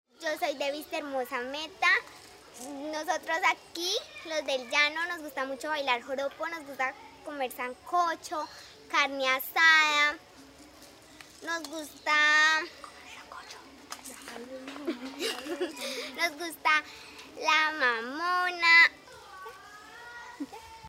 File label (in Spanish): Mensaje niña; mi voz es tu voz; Audios de presentacion ninos y ninas de la obra musical